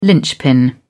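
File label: "linchpin", British English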